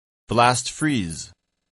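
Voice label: "blast-freeze", English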